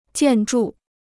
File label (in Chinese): "建筑", Chinese